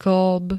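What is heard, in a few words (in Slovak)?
"kĺb", Slovak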